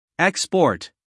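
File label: "export", American English, male; everyone-can-use-english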